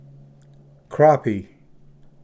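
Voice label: "crappie", English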